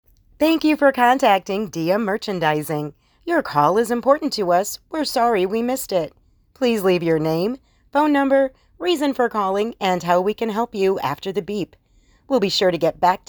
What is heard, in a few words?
Voicemail Message